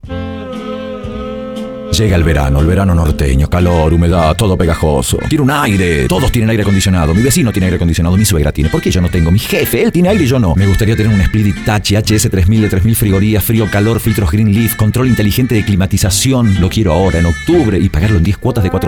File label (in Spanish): Spot Radio; Castillo Hogar